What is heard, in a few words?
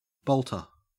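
"bolter", Australian English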